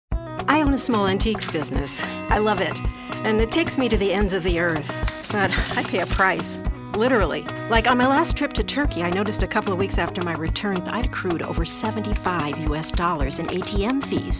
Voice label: Commercial